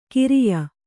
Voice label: "ಖರೆಯ", kannada